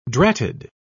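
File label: "dratted", British English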